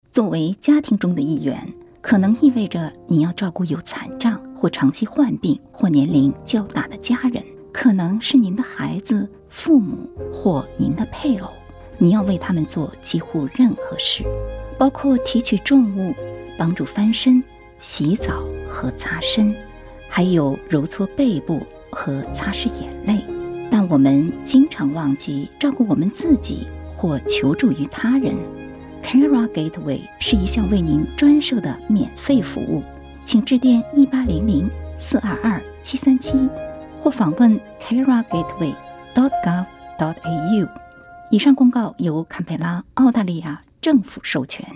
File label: Mandarin; Carer Gateway